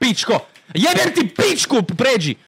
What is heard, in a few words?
croatian man swearing ebem ti bichka